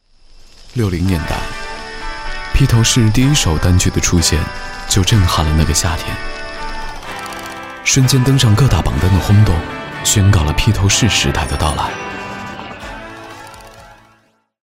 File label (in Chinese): 清新; 致敬传奇